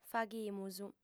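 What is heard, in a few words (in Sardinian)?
"faghimus", female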